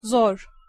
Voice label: "zor", Turkish